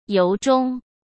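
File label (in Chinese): "由衷", Mandarin; chinese-flashcards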